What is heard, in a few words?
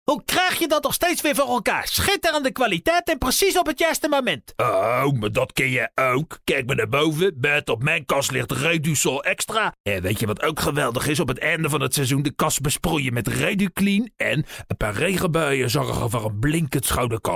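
accent male HAAGS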